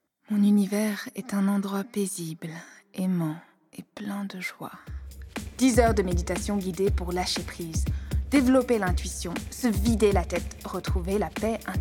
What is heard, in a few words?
French